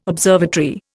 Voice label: "observatory", Indian